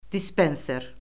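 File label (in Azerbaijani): "dispenser", Azerbaijani; Orfoqrafiya Lüğəti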